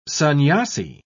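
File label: "sannyasi", British English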